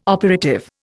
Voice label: "operative", Indian

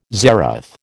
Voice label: "zeroth", American

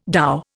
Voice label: "dhow", Indian

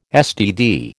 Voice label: "STD", American

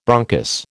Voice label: "bronchus", American

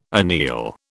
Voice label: "anneal", British

